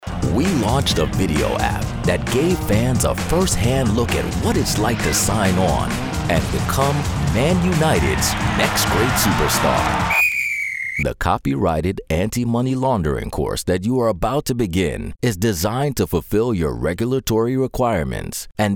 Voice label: Narration; eLearning Voiceovers